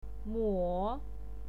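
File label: "muo" third tone, pinyin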